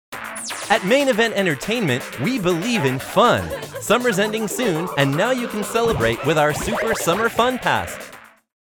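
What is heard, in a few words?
tv promos, commercial; Main Event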